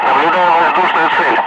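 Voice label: Russian speech, Ka-50 Wingman; Messages tally bandit; DCS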